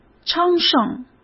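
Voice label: "昌盛" Mandarin Chinese; chinese flashcards; hsk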